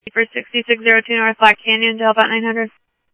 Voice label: Phoenix PD, scanner audio

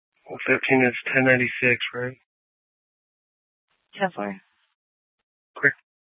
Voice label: Mesa PD Fiesta Dispatch; TOPAZ Regional Wireless Cooperative (TRWC); Mesa Simulcast

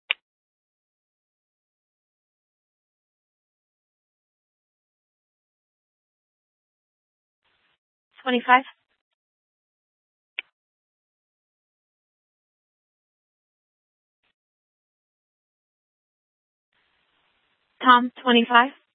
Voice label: PD Dispatch

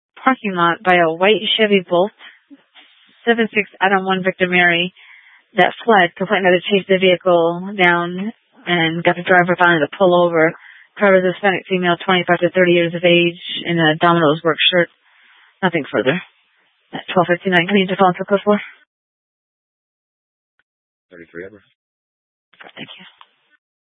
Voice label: Police Dispatch; Phoenix Police; A04 400 South Mt Prec; Simulcast C- Chandler

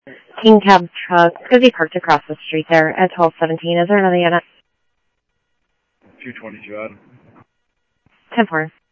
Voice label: Phoenix PD, scanner; ThompsonPeakHigh

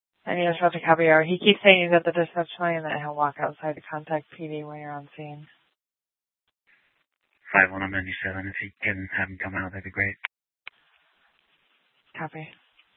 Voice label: Police Dispatch; TOPAZ Regional Wireless Cooperative (TRWC) - Mesa Simulcast; Mesa Police Department; 3 Red Mountain Dispatch

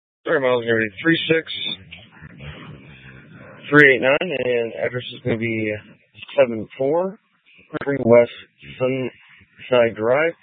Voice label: Sheriffs Office, scanner

